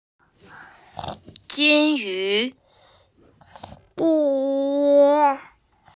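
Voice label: "jin1yu2", Mandarin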